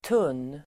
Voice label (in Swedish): "tunn", Swedish